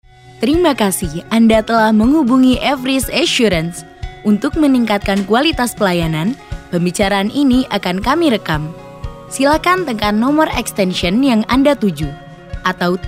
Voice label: INDONESIA IVR